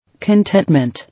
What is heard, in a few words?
"Contentment", English